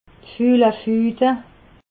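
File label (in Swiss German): "fulefude", Alsatian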